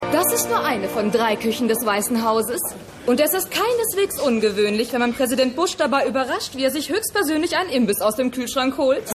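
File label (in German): Fremdenführerin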